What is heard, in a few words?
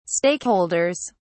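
"stakeholders", British English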